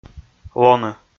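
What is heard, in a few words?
"лоны", Komi, male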